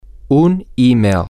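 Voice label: an Email, Spanish, male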